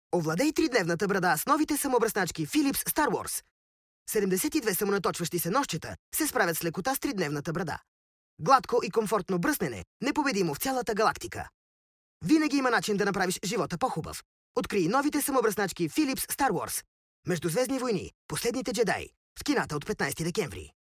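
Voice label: bulgarian male commercial, voice demos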